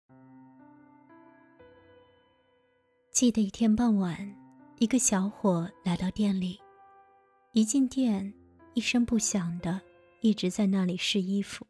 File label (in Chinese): 情感短视频画外音小样